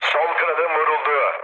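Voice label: left wing, mood low, Turkish; speech; War Thunder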